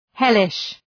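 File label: "hellish", English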